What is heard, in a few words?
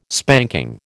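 "spanking", American English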